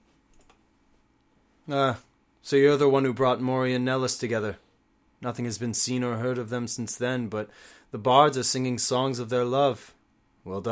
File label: breton